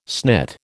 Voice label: "snit", American

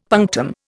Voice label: "punctum", Indian; Dictionary

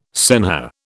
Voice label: "senhor", British

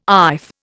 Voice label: "iff", Indian

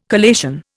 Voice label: "collation", Indian

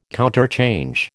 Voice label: "counterchange", American

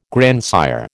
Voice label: "grandsire", American

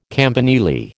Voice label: "campanile", American

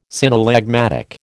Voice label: "synallagmatic", American

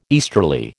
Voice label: "easterly", American

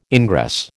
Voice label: "ingress", American

